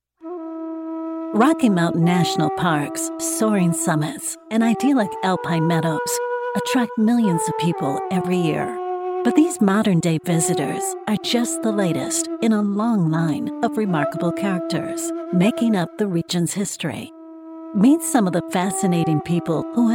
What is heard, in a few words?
Narration